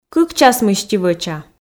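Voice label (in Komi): кык час мысти вӧча, Komi, female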